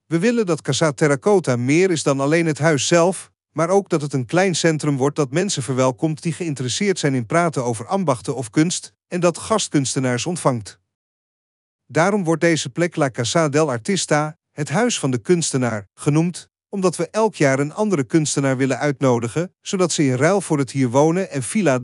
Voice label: Dutch